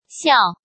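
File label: "Xiào", Chinese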